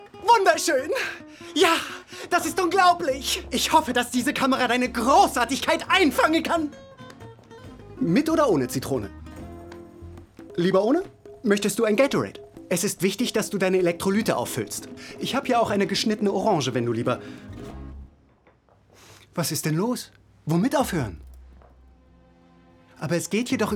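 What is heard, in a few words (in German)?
Synchron amerikanische Serie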